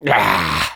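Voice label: negative vocalization, French; Grommelle 1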